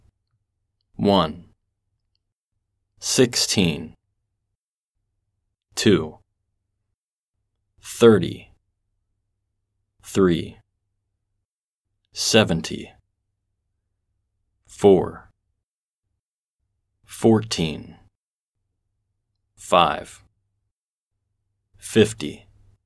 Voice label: Telling Time AE